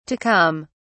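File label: "to come", British English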